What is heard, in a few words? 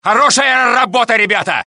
Russian; mohaa; dialogue